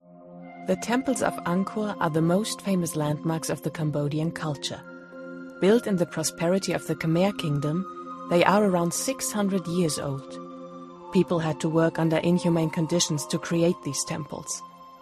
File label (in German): englisch